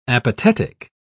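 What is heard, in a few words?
"apatetic", British English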